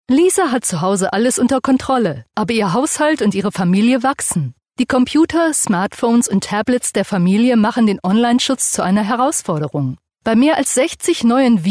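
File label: German, female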